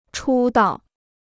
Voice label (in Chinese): "出道", Chinese